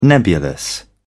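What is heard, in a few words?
"nebulous", British English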